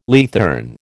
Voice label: "leathern", American